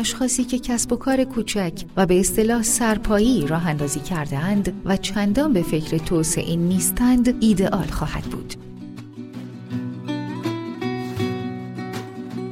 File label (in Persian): تلفن گویای مجازی